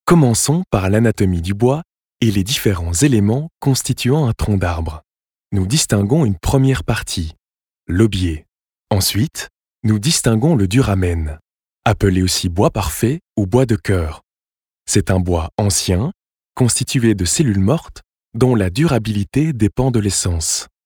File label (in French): E-learning formation ton pedagogique legerement souriant neutre